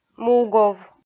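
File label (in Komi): "мугов", Komi, female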